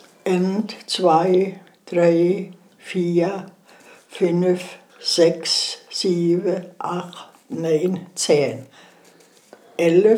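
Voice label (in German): zahlen, O-Ton Hunsrück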